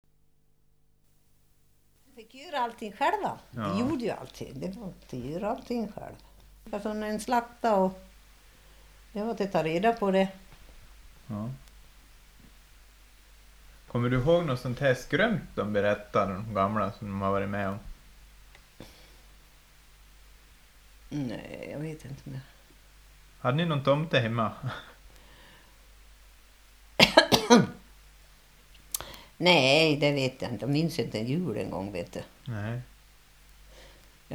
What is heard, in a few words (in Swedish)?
St Tuna, dialekter; Svenska sagor; DAL; Folkminnen